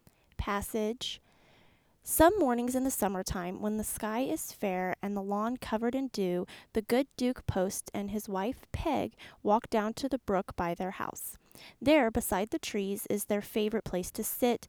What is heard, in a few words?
Western female RP